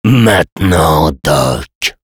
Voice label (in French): Sniper activate charge, fr